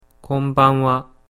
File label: "konbanha", Japanese